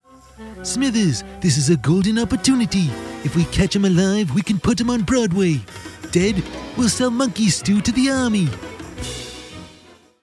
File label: Character; Mr Burns